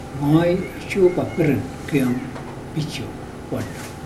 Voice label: I will not give the pig to the man, mokilese

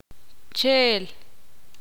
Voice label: ixil; hoy

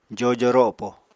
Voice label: "jojo ropo", Apatani

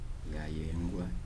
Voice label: "to be built", Kibembe

